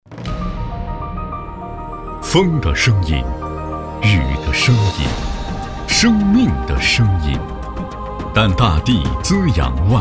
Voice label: 男,专题乡村振兴; 岁耕沃野

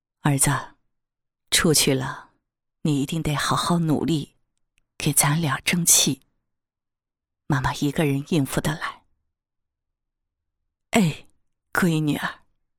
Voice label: 角色 妈妈 试音

